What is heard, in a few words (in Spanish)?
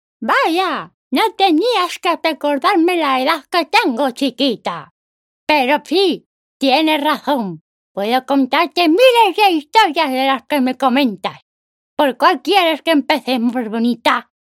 Videojuego anciana